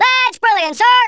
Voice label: brilliant, Drill Sergeant; Worms Armageddon

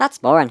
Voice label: "boring", Irish; Worms Armageddon

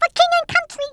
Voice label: "kamikaze", Brooklyn; Worms Armageddon

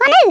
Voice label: "what the", Swedish

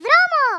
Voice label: run away, Speech, Greek; Worms Armageddon

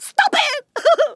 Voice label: "leave me alone", Kamikaze speech; Worms Armageddon

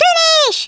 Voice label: "run away", Hungarian; Worms Armageddon

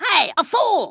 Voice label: "stupid", Kamikaze; Worms Armageddon

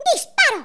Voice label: "FIRE", Spanish

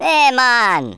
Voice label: hello, Rasta

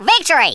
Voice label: "Victory", Australian; Worms Armageddon

